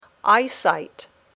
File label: "eyesight", English; Passport to English